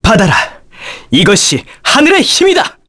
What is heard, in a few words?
Vox Skill, kr; Arch; kingsraid